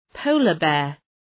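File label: "polar bear", English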